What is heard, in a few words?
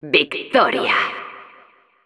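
Announcer victory, Spanish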